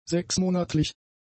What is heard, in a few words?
"sechsmonatlich", German; adjectives